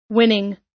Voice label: "winning", us

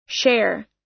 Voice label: "share", us

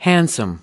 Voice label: "handsome", us

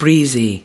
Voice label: "breezy", us

